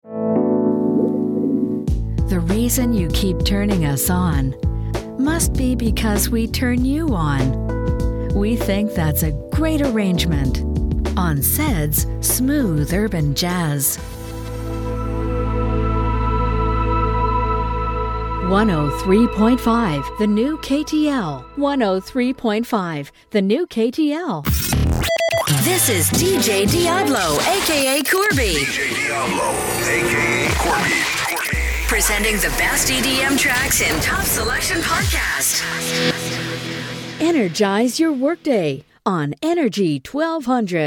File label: Imaging; Imaging Jazz Radio